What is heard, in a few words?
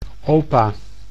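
"opa", Dutch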